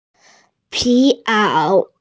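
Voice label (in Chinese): "pi2ao3", Mandarin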